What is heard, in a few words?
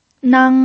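"nang", pinyin, first tone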